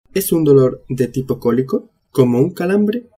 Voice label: "colico", spanish